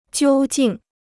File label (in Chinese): "究竟", Chinese